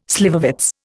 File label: "slivovitz", Indian